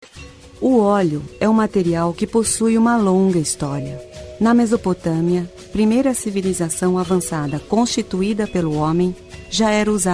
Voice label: locutora de brasil

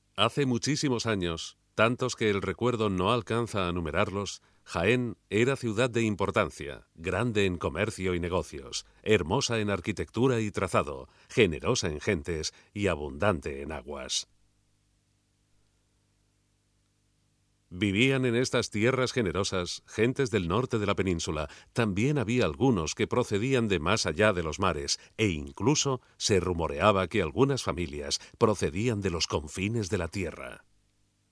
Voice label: locucion documental; Locución del documental Jaén. Voz en off

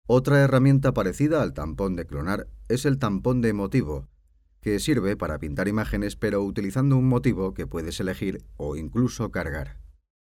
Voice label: locución curso multimedia locutor; Multimedia course voiceover

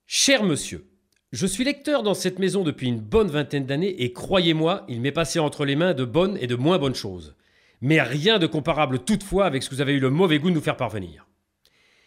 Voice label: locutor frances; speaker

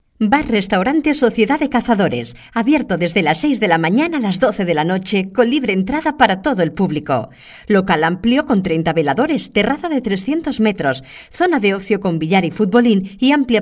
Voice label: locutora spain

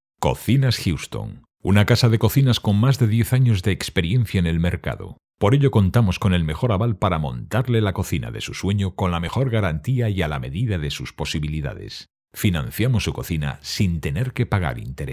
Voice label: locutor spain

